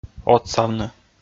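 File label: "отсавны", Komi, male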